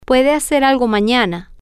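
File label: female, spanish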